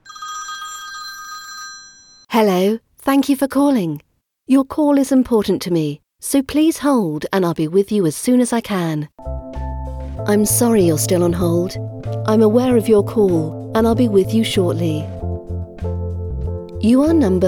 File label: IVR